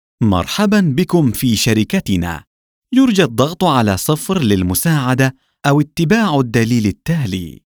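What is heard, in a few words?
Arabic IVR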